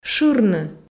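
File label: "шырны", Komi, female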